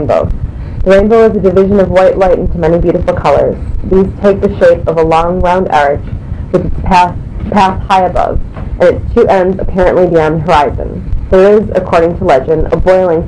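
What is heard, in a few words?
michigan, International Dialects of English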